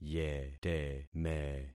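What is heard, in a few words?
"yeteme", concatenated syllables; Affixing experiment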